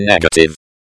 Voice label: "negative", espeak